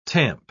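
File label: "tamp", English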